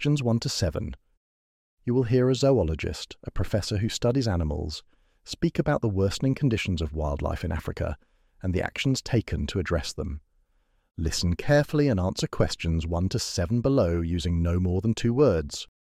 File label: IELTS Listening Practice Test; Test 5; Declining African Wildlife